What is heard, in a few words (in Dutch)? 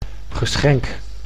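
"geschenk", Dutch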